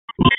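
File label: Police Northeast District Dispatch; Raleigh; wake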